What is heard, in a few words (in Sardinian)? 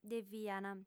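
"deviant", female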